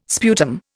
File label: "sputum", Indian